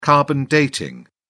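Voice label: "carbon dating", English, British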